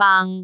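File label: "baang3", Cantonese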